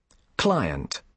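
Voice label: "client", British English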